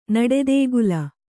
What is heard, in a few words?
"ನಡೆದೇಗುಲ", Kannada